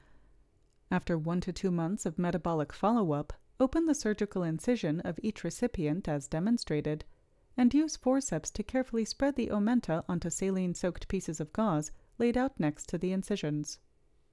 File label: Voiceover